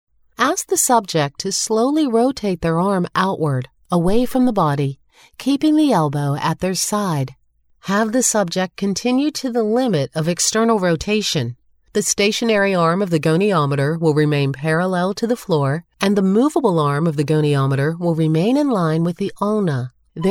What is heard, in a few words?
E learning